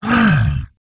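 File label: MONSTER ZOMBIE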